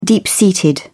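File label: "deep-seated", British English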